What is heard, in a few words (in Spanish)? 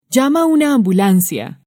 "llama una ambulancia", Spanish